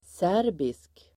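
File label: "serbisk", Swedish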